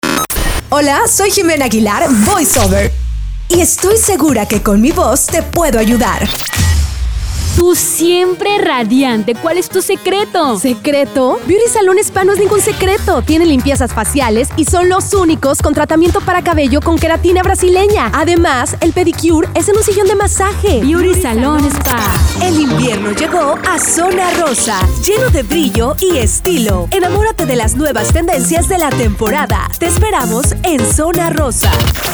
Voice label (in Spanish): Spots, banco voces